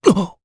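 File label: Vox, voices, Japanese; Mitra; kings raid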